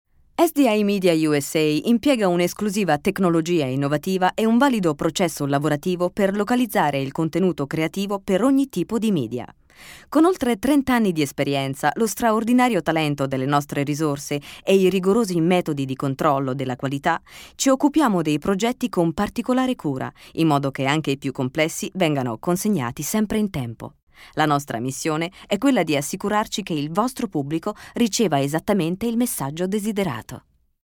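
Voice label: Italian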